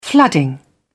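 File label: "flooding", British English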